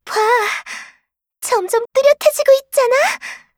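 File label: kill Player, Voice Line, Korean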